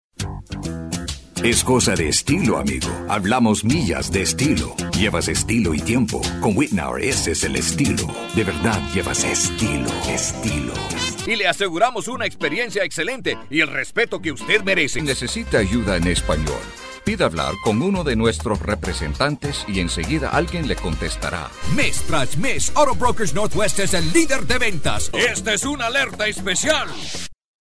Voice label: american spanish show